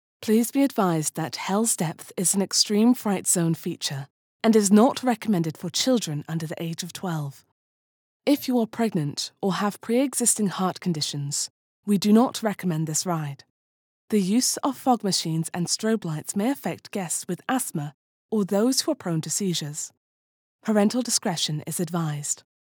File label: UK Business, phone message / psa